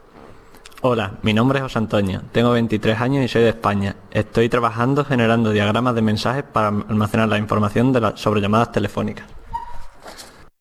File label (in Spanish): SPANISH